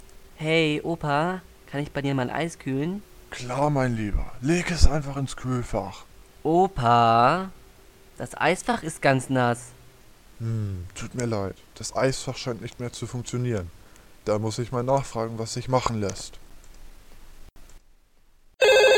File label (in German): Radiospot